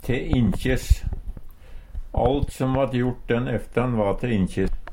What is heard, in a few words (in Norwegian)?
te inkjes, Numedalsmål; til ingen nytte; Uttrykk; Alt som vart jort den eftan va te inkjes.